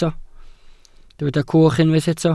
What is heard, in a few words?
"cwch", Welsh